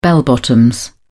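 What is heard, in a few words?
"bell bottoms", British English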